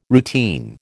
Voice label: "routine", American